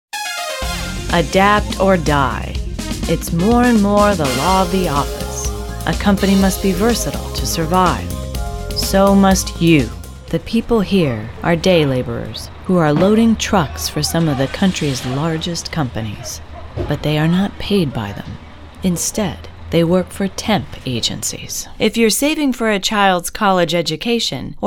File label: Narration